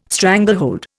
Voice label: "stranglehold", Indian